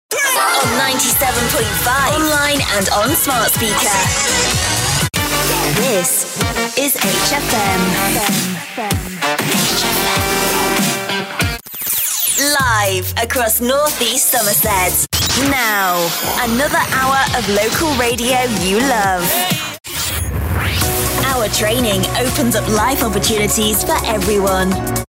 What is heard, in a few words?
imaging